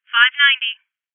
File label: Voices Alarm State Female